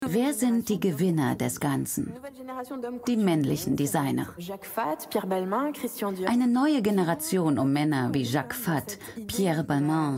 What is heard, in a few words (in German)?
Doku VO; ARTE